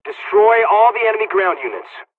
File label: ground attack, dialogs hungarian